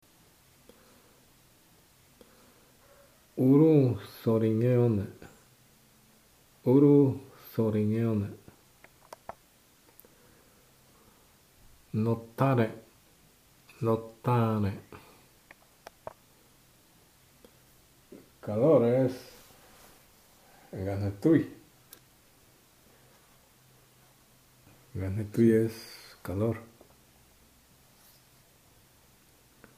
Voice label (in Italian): Ayoreo, liste di parole